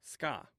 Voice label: "ska", omaha language